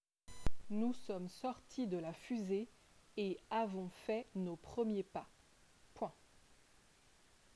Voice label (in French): dictee